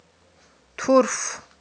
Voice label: "турф", Aghul